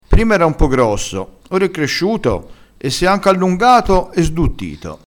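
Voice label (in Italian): "sduttito", dialetto crespinese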